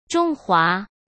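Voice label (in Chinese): "中华", Mandarin Chinese; chinese flashcards; hsk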